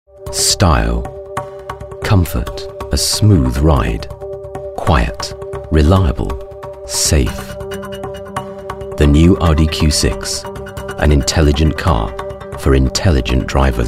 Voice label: Commercials

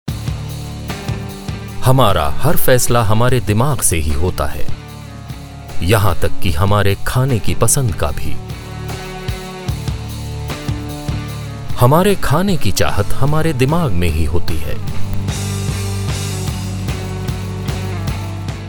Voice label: Hindi

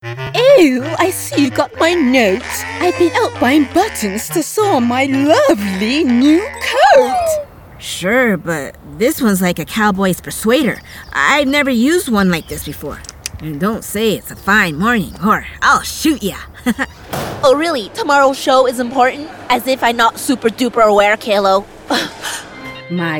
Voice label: Animation No Slate

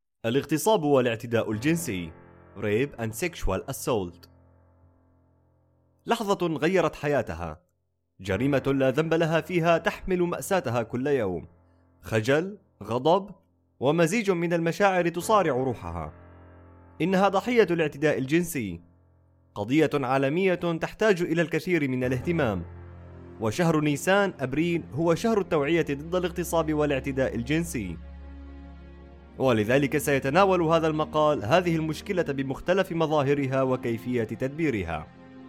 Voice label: Documentary

